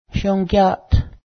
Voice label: laugh, Cayuga; syǫ́gya:t; laugh (v.)